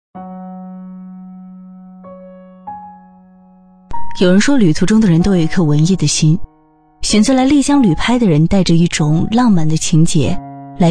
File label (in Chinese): 女 宣传片 口语化 宣传片; 丽江店宣传片